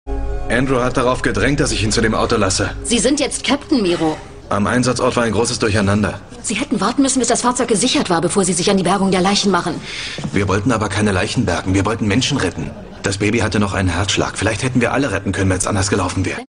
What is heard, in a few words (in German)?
Normaler Dialog